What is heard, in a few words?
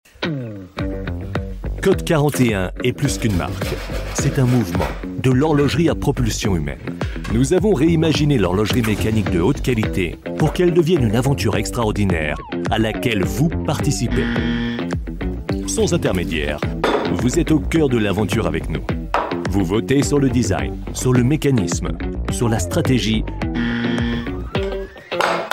commercial; code41